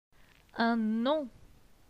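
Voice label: "Un nom", French; phonetique; les voyelles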